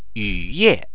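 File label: "yuye", Chinese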